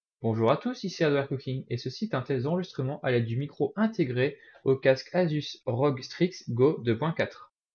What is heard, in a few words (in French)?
test micro integrer, Asus ROG Strix Go 2.4